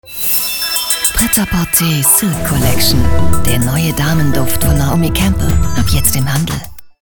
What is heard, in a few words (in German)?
Sinnlich; Fremdtake